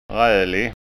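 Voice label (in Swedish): "ralig", Skåne dialect Swedish; Ful Otäck; Ræddelig Bornh.; Ugly Frightful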